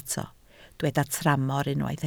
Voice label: "tramor", Welsh

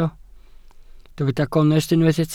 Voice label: "gonest", Welsh

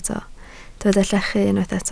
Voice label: "llechu", Welsh

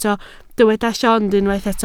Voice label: "llond", Welsh

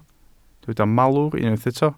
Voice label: "malwr", Welsh

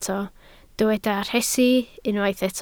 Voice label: "rhesi", Welsh

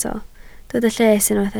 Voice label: "lles", Welsh

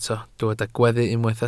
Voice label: "gweddu", Welsh

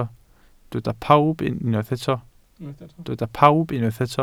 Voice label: "pawb", Welsh

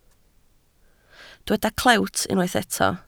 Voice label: "clewt", Welsh

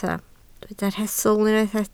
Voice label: "rhestl", Welsh

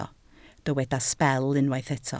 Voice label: "sbel", Welsh

